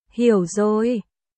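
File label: Vietnamese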